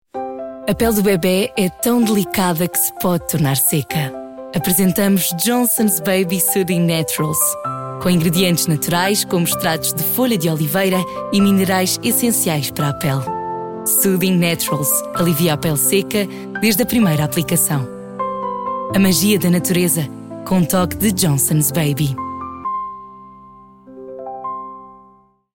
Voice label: Spot